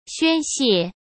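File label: "宣泄", Mandarin Chinese; hsk; chinese-flashcards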